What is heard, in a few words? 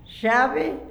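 "llave", Spanish, La Plata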